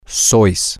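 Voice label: yall are Spain permanent, Spanish, male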